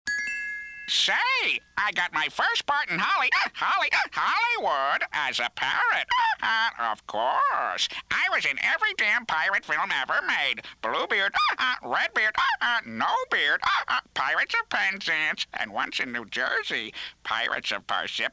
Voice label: Parrot Pirate; animation